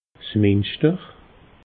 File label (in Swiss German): "minschter", alsace